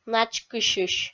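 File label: "начкысьысь", Komi, female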